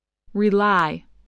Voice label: "rely", spelling voice

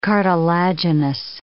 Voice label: "cartilaginous", English

